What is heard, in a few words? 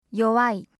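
"yowai", Japanese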